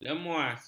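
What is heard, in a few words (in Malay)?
"lemuas", Malay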